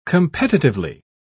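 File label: "competitively", British English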